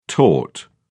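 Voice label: "tort", English, British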